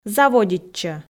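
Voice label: "заводитчӧ", Komi, female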